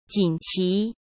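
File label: "锦旗", Mandarin Chinese; hsk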